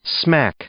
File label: "smack", verb, English